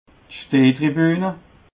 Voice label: "steh tribune", alsace

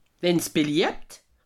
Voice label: "wenns beliabt", alsace

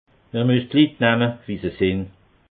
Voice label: "litt", alsace

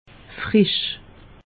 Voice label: "frisch", alsace; meteo

